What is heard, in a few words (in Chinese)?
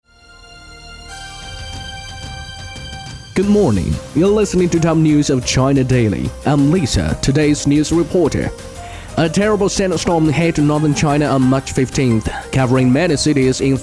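英语男-【英语】英语版（新闻感）; 中国日报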